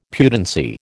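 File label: "pudency", American English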